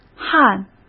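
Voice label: "焊", Mandarin Chinese; hsk; chinese-flashcards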